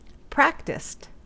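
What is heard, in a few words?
"practised", US English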